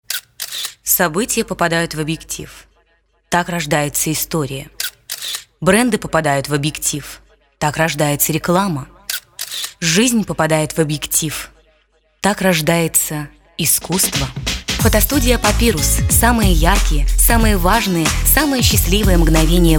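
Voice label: Ukrainian, female, commercial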